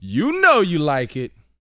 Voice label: BOAST MF; Vocal Planet; R&B Dance